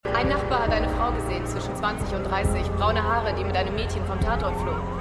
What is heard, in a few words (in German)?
Polizistin; Manifest